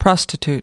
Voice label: "prostitute", us

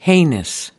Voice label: "heinous", us

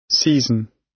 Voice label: "season", gb

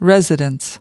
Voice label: "residence", us

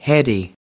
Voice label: "heady", us

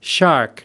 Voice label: "shark", us

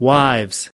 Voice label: "wives", us

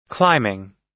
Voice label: "climbing", us

